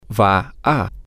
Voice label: you are going to, spanish, M

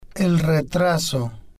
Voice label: "el Retraso", spanish

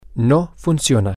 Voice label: x does not work, spanish, M

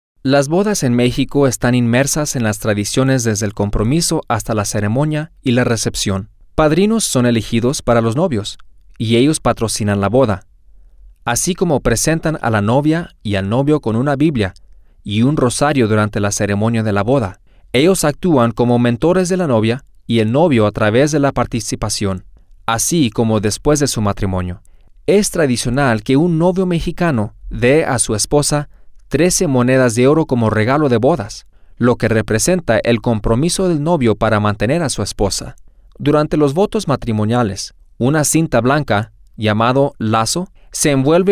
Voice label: Spanish; bodas Mexicanas

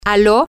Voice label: hello telephone, spanish, F

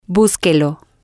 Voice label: "busquelo", spanish

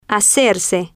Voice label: "hacerse", Spanish

